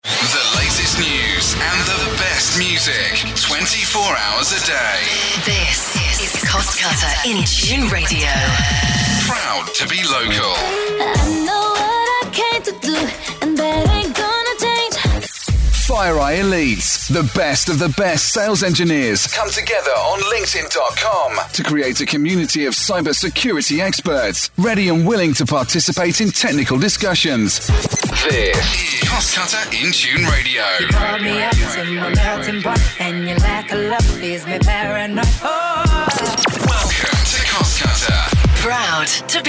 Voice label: Imaging